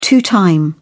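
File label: "two time", English, British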